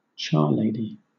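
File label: "charlady", British English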